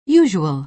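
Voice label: "usual", American English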